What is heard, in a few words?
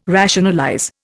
"rationalize", Indian